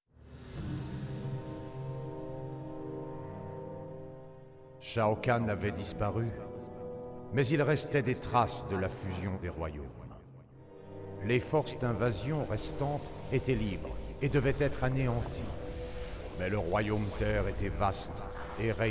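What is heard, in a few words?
music voice over endings French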